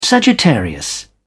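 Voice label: "sagittarius", British English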